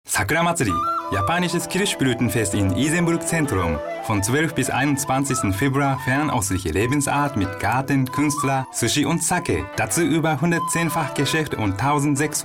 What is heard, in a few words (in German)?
japanisch